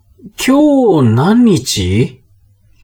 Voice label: man